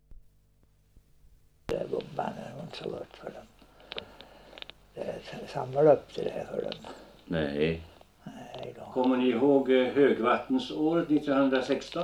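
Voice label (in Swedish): Hedesunda Gästrikland, Svenska sagor, dialekter; Folkminnen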